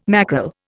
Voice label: "mackerel", Indian